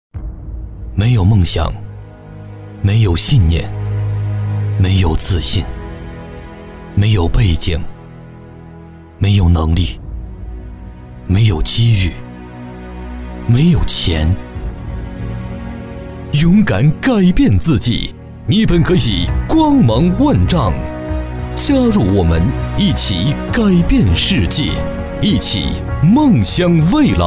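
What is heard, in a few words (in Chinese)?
国语 男声 专题片 励志宣传片 大气浑厚磁性 沉稳 娓娓道来; 人物介绍-温馨感人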